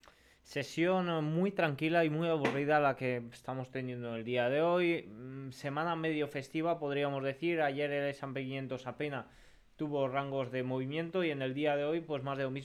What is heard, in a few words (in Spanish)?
radio; movimiento en un rango muy muy pequeno las noticias no afectan a apple